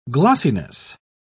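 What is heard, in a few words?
"glossiness", English, British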